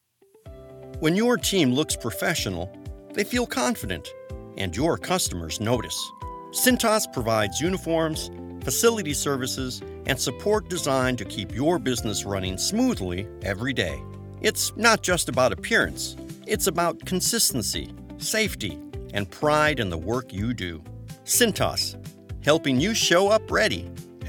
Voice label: corporate narration; Cintas; business brand